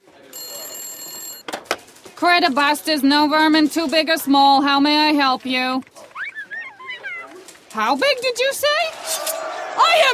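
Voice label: animation game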